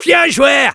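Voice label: Medic good job, French; "Bien joué !"; Robot Medic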